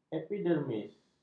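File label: "epidermis", Malay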